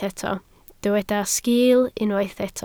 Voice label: "sgil", Welsh